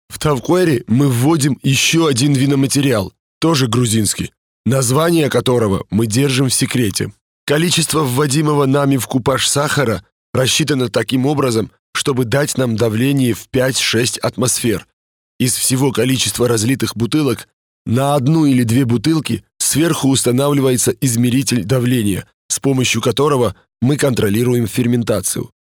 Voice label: Gurcuce Erkek

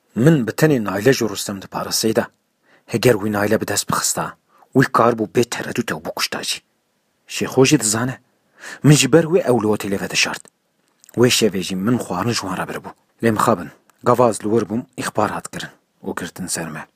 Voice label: Kurtce Erkek Kurmanci, seslendirme; erkek sesler

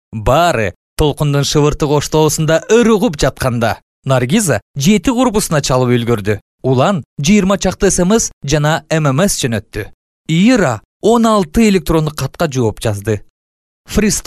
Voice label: Kirgizca Erkek

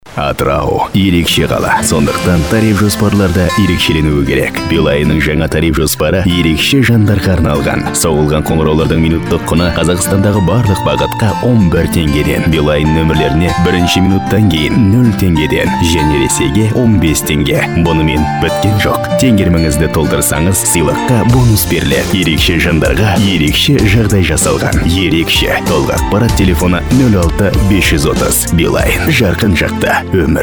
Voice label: Kazakca Erkek; seslendirme

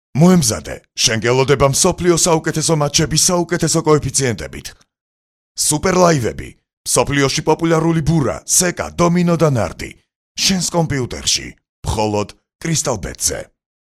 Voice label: Gurcuce Erkek; seslendirme

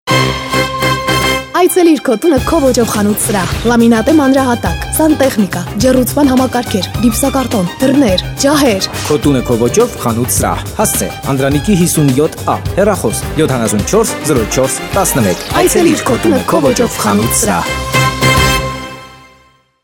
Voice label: Ermenice Kadin; seslendirme

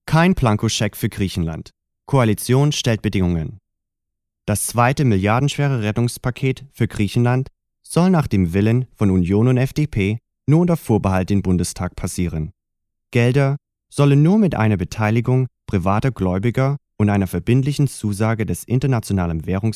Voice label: Almanca Erkek; seslendirme

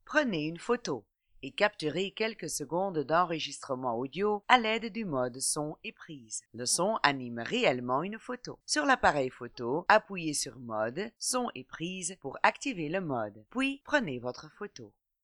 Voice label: Fransizca Kadin

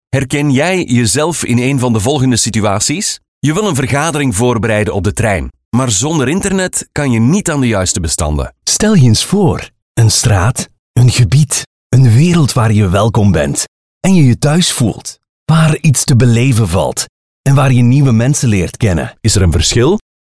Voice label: Hollanda Erkek; seslendirme

